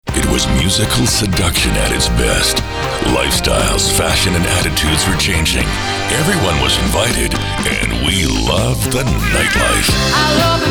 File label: Infomercials